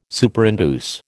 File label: "Superinduce", American